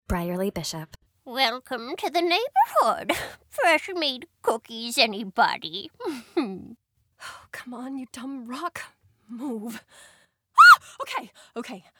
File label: Female Characters